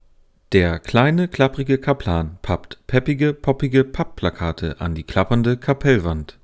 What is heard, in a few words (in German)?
Aufnahme ohne Reflexionsschutz 15cm